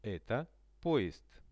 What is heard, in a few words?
"eto poezd", Russian; Это поезд.; transport; visual-dictionary